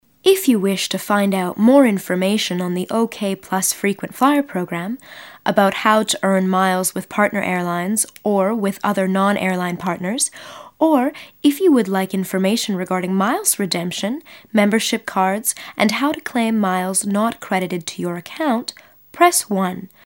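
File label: Female English ivr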